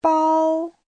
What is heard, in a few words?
"bao", Chinese